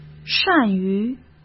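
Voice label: "善于", Mandarin Chinese; hsk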